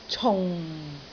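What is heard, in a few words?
"cung4", Cantonese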